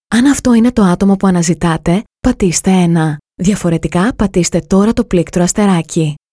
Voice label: Agent Confirmation, greek; voice prompts billing